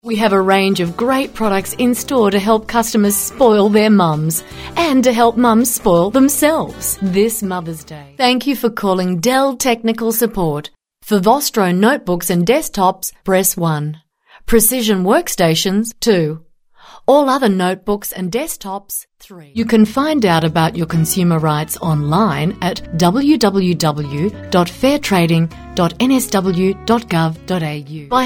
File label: Telephone Demos